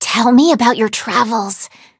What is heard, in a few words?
touch, en; cookie run